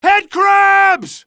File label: headcrabs, male; Half-Life 2